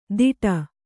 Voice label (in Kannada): "ದಿಡ", Kannada